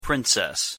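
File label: "princess", English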